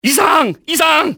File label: medic medic, cantonese male; XBOX; enemy